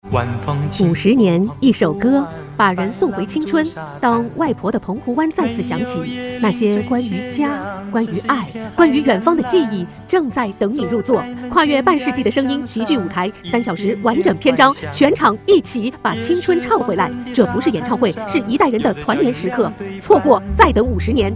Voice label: Mandarin; Sing Tao Radio; Bay Area; Taiwan Campus Folk 50; US Tour; 星島日報; 校園民歌50年